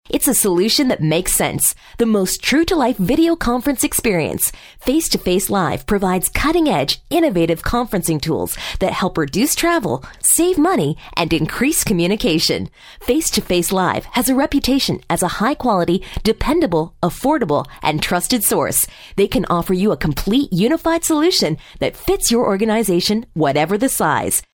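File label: English Canadian, female, voice over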